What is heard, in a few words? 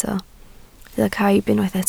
"caib", Welsh